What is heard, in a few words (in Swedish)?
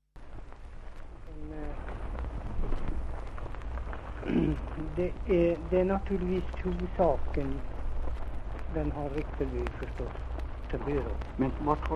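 Hede Härjedalen, dialekter; Folkminnen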